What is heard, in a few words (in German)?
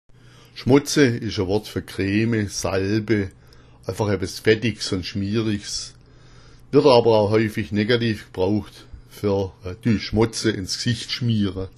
schmotze, schwaebisch